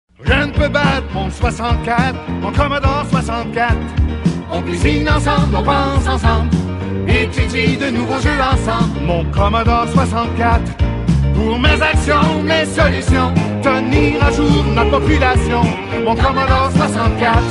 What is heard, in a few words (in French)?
C64 French